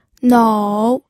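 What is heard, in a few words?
"nou", third tone, pinyin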